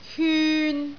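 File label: "hyun3", Cantonese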